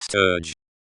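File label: "stirge", English; stɜːɹd͡ʒ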